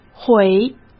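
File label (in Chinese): "毁", Mandarin Chinese; chinese flashcards; hsk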